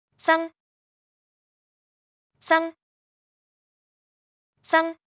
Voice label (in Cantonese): 生, Cantonese; Traditional Chinese